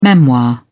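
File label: "memoir", British English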